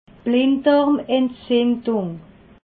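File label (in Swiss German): "blinddarmentzindung", Alsatian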